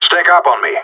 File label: follow me, radio; GSG9